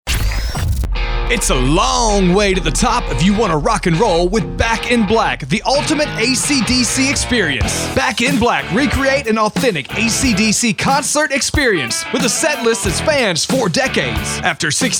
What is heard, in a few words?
English Commerical